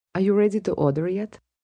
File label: Ready To Order, Restaurant, bar, female; Talking